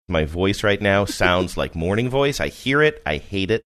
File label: my voice right now sounds like morning voice